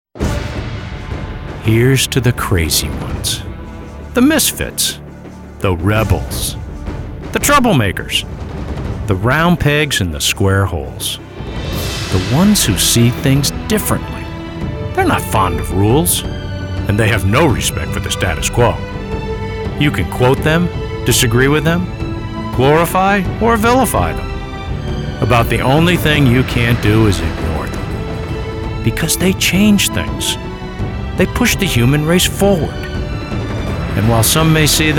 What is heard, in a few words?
voiceover